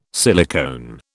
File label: "silicone", British